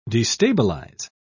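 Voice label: "destabilise", British English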